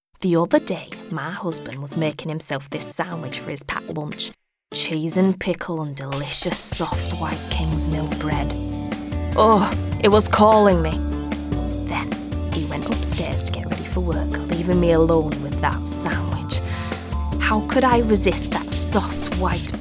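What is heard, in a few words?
Northern Accent Ad; KingsMill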